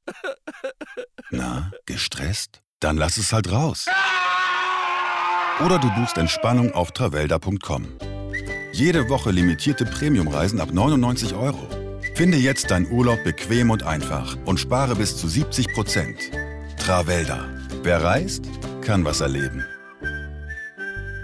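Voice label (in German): Werbung Reisebüro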